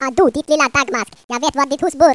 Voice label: threat; Swedish